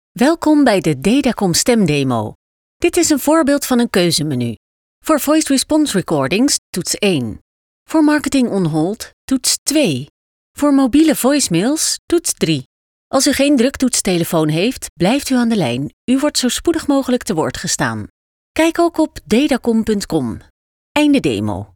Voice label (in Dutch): Voice over Nederlandse vrouw